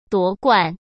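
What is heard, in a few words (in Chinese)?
"夺冠", Mandarin Chinese; hsk; chinese flashcards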